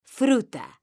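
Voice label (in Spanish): "fruta", Spanish, female; mekanta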